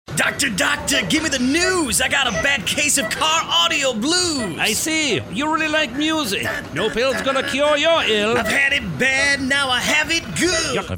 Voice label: Character